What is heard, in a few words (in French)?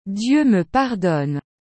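"Dieu me pardonne", French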